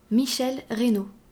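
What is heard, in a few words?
"Michelle Raynaud", French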